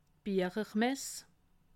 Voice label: "biechermess", alsace

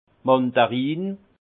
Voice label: "mandarin", alsace; vin; mandarine

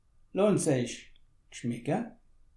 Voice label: "gschmecke", alsace

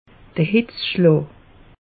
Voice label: "hitzschlaa", alsace; meteo

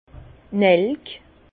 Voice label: "nelk", Bas Rhin; vin; oeillet

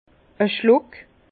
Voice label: "schluck", Bas Rhin; vin; gorgée

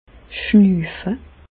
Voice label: "schnuufe", Alsace, Bas Rhin; medecine; respirer

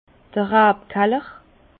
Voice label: "rabkaller", Bas Rhin; vin; caveau

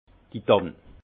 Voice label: "dann", alsace; vin; sapin

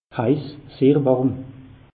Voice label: "heiss", alsace; meteo

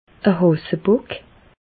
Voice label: "hasebock", Bas Rhin; medecine; un chaud lapin

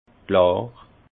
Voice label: "klar", alsace; vin; clair

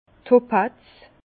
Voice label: "topaz", Bas Rhin; vin; topaze

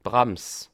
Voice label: "brams", Alsace

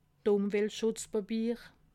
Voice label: "umweltschutzpapier", alsace

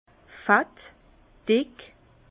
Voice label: "fett", Bas Rhin; vin; gras

